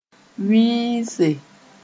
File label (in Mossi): "wɩɩse", moore